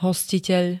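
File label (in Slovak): "hostiteľ", slovenské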